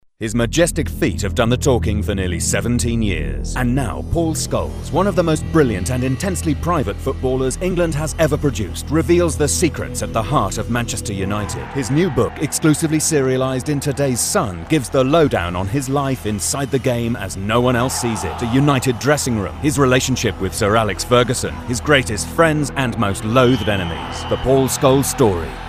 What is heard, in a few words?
Radio Vocal Point